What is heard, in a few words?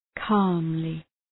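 "calmly", English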